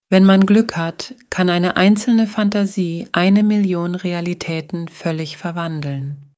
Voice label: de german, spoken language